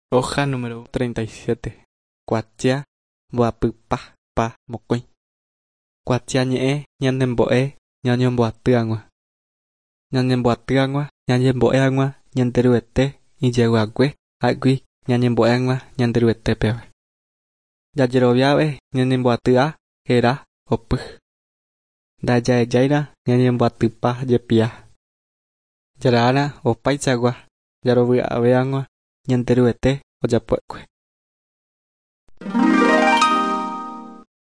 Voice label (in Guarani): guarani mbya; good news and words of life; bible